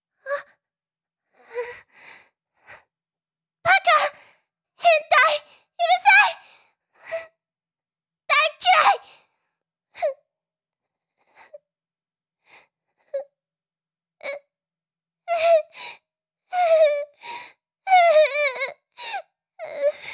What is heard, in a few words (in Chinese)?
读这种台词就要靠气势